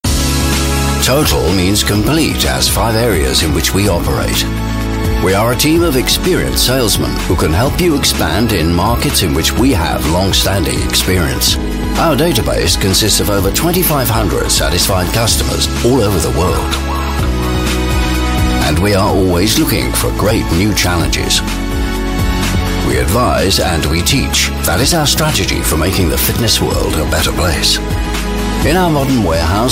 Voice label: Corporate Movie; Panopticon Films TFC

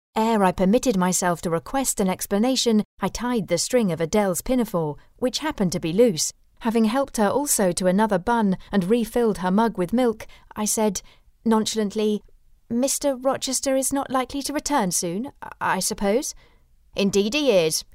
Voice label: Audiobook Classic Fiction

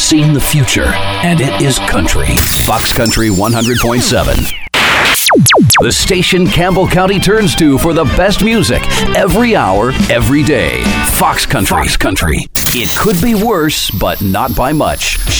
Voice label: Non Union Adult Male Radio Imaging

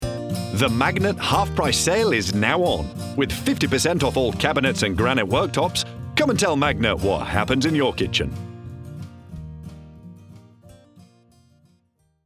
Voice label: hard-sell commercial

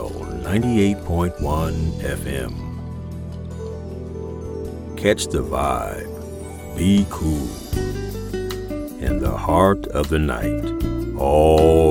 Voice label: Radio Imaging Smooth Jazz

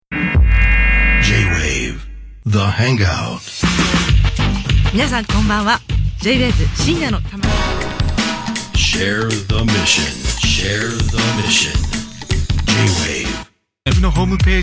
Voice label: RADIO jingle; J-WAVE the Hangout

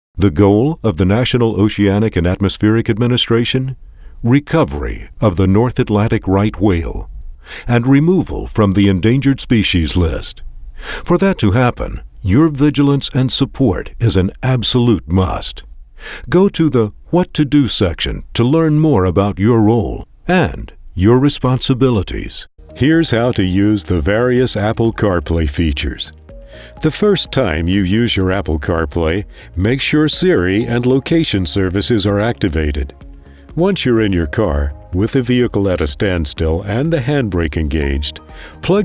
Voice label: Explainer Videos

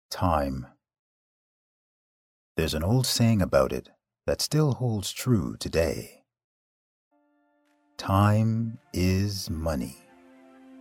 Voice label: Corporate VO

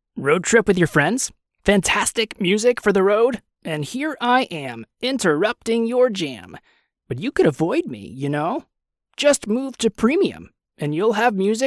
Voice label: radio tag

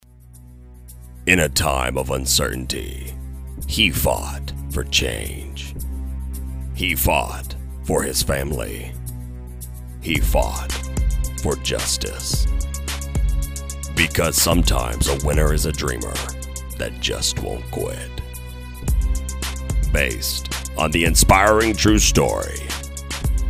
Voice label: Trailer

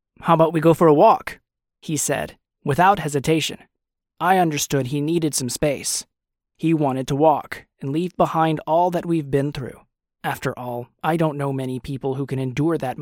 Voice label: audiobook YA

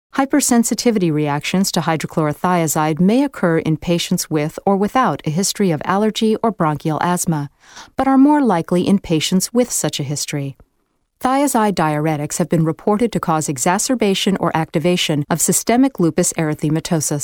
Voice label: Med Narr

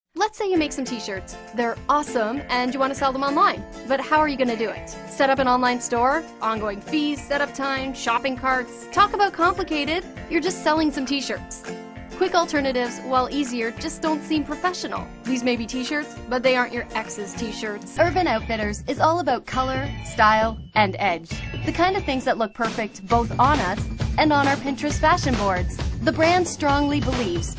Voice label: NARRATIVE

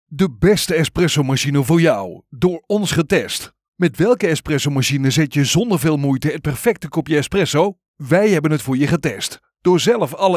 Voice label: Natural speak Authority native male dutch; espressotest

